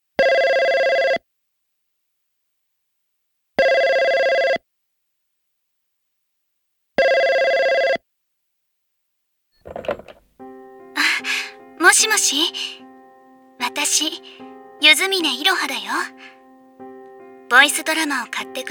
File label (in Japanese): オープニング